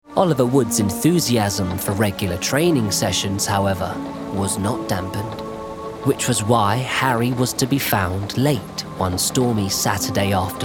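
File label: Audiobook, Light tone. Young, light & peppy. London.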